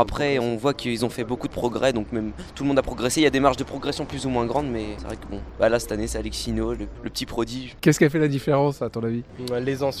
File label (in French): Interview Participants Contest Beatbox; Emergences 2010